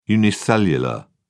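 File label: "unicellular", British English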